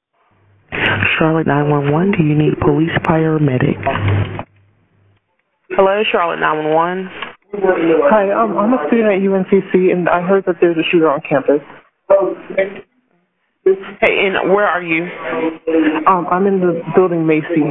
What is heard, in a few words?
911 call; 9224 Sout Library Ln